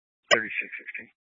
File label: Police Department Dispatch, scanner